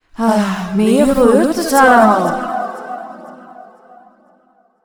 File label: VO fight running Out Of Patience; yami